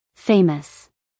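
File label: "famous", American English, female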